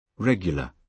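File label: "regular", British English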